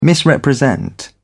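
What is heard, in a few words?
"misrepresent", British English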